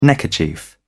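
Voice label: "neckerchief", British English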